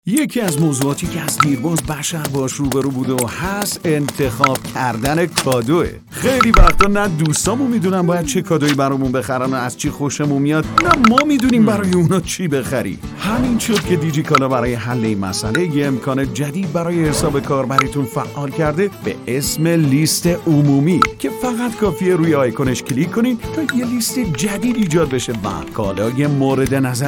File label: narrator